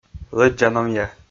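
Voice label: "лӧддза-номъя", Komi, male; kpv audio dict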